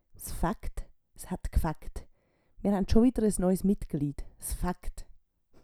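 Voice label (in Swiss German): "s faegt", Swiss German; Wortschatz Kapitel 8; Kapitel 8 Zusatzwortschatz 3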